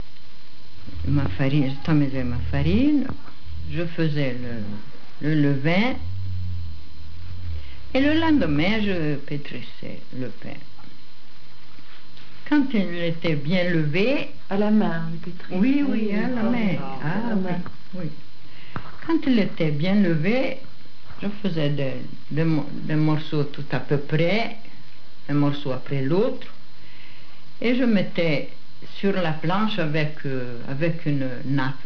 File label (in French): corse, accents de France